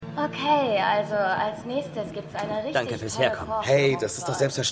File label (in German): Moderatorin; God Friended Me